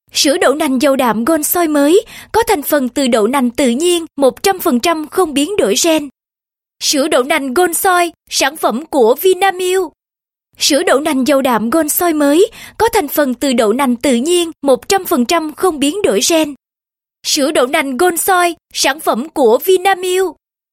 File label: South Female Vietnamese